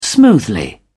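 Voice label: "smoothly", British English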